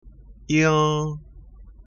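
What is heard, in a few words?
"ing", pinyin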